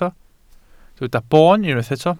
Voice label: "boon", Welsh